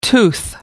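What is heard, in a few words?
"tooth", English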